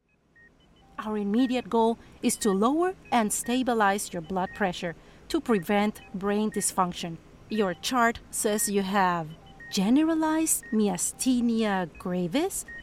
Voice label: eLearning compilation